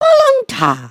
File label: group talk; emotes